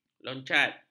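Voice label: "loncat", Malay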